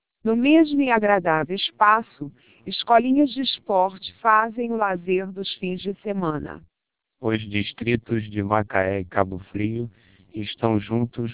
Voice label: twelp short, Portuguese, TWELP 1200 bps Vocoder

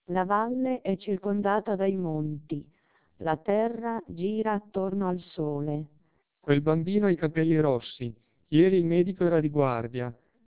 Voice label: 1200 bps TWELP, Italian

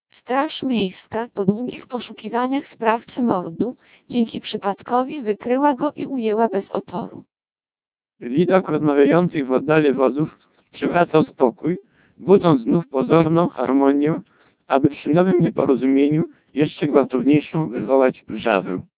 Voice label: Polish, CODEC2 1200 bps

